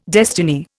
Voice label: "destiny", Indian